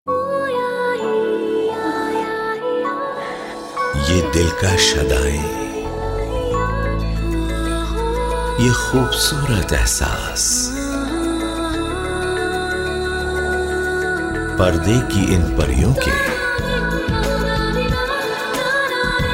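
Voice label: Promotional, Urdu; GoLocalise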